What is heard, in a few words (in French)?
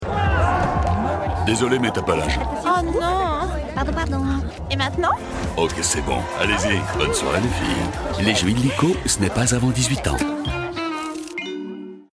voix TV, Pubs TV, voix off; Illiko